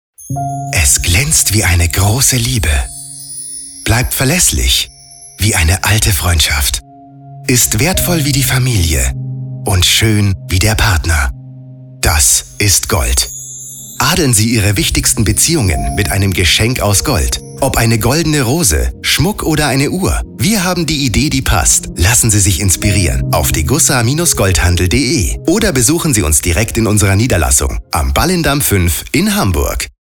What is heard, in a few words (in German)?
Spot; Degussa Vergolden